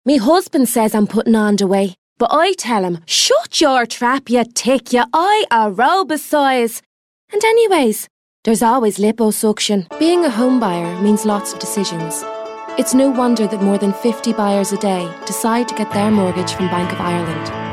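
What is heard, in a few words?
English Irish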